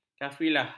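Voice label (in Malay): "kafilah", Malay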